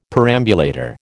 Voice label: "perambulator", American